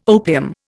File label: "opium", Indian